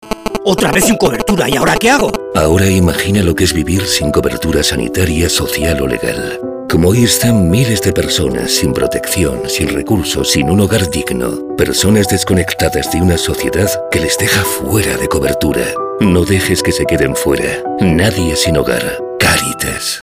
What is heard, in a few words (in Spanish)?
cuña Castellano; CARITAS